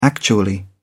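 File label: "actually", English, British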